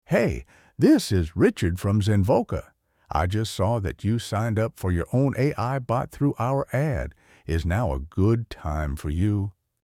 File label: english voice male